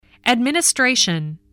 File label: "administration", English voice